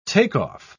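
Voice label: "takeoff", English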